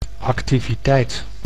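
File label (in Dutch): "activiteit", Dutch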